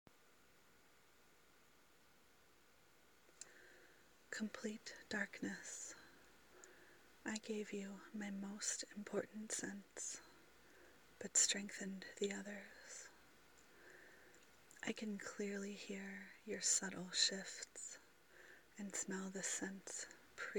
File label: F4A Erotic poetry; Blindfold; Enhanced senses